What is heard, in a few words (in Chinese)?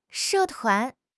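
"she4 tuan2", Mandarin Chinese; 汉语口语新时代-初级下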